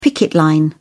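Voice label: "picket line", British English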